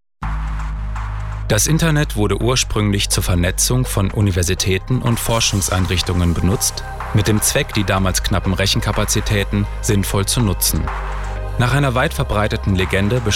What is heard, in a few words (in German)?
Doku